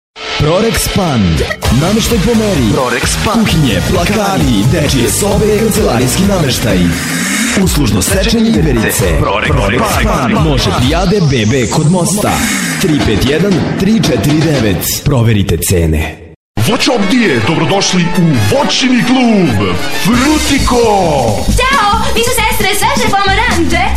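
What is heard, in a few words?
Serbian male commercial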